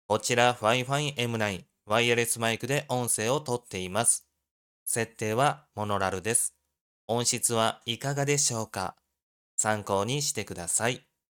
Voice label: fifine m9 microphone

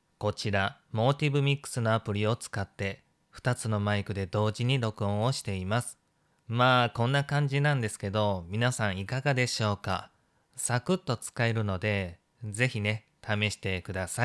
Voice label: shure mv7

